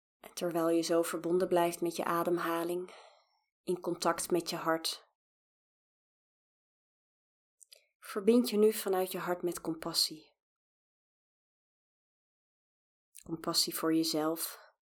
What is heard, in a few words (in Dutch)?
Verbind je met compassie en ontspanning zonder muziek